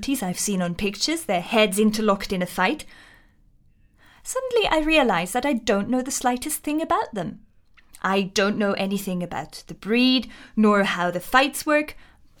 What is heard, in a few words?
Swiss Fighting Cows NARR